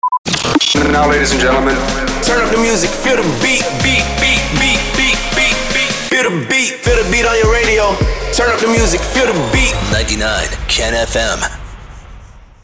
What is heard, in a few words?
SWEEPER